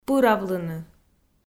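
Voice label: "пыравлыны", Komi, female; kpv audio dict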